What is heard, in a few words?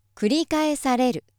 female